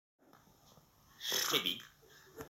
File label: English gibberish